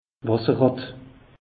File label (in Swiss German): "wasserrat", alsace; meteo